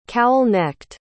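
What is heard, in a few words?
"cowl-necked", English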